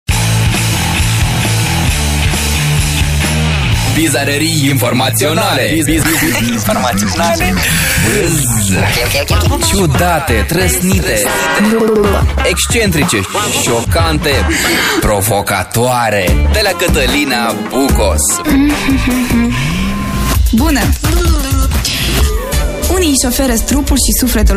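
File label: romanian female commercial; voice demos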